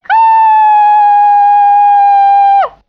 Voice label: "ooh", Voice